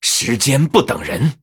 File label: Male Blood Elf; zh